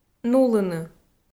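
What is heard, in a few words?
"нулыны", Komi, female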